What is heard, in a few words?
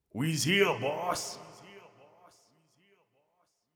thug voice; ocean-commotion